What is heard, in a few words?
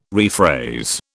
"rephrase", British